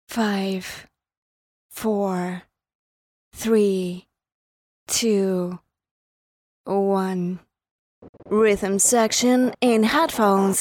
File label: Jingle Voice English Version White Noise